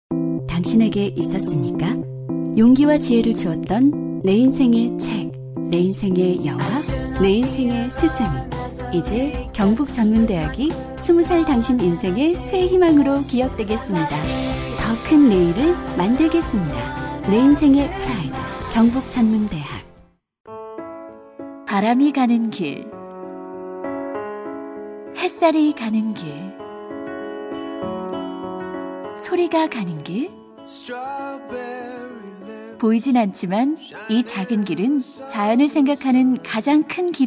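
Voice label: Korean, female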